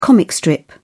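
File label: "comic strip", British English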